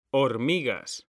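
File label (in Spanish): "hormigas", Spanish, male; mekanta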